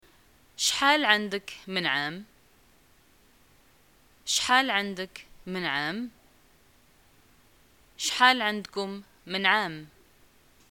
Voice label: how old r u, arabic, ma